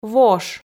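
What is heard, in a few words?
"вош", Komi, female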